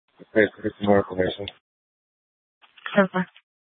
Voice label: Sheriff, scanner